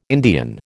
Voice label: "Indian", American

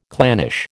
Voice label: "clannish", American

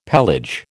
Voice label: "pelage", American

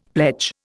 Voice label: "pledge", Indian; Dictionary

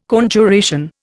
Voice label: "Conjuration", Indian

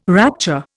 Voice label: "rapture", Indian

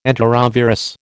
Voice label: "enterovirus", American

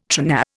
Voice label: "tRNA", Indian

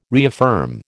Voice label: "reaffirm", American

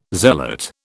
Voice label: "zealot", British

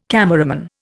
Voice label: "cameraman", Indian

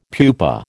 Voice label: "pupa", American

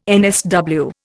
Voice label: "NSW", Indian

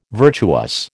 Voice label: "virtuous", American

